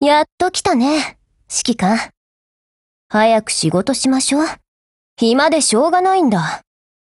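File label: Japanese, Voice; GF Alarm; NZ75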